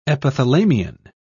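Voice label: "epithalamion", British English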